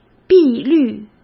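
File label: "碧绿", Mandarin Chinese; chinese-flashcards; hsk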